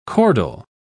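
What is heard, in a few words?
"chordal", British English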